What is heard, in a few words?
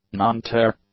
"non-tear", english; adjectives